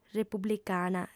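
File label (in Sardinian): "republicana", female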